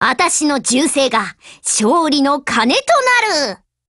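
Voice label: BLACK ACTION Japanese, Voice; GF Alarm; F1 Mod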